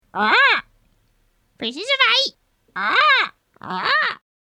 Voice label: Fake Pirate Parrot Pieces Of Eight; Bird Sound Effects; fantasy sound effect